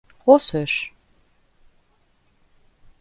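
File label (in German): "russisch", German; pronunciation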